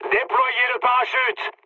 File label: bailout mood low, speech fr; War Thunder